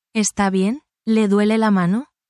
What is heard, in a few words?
spanish, spanish phrases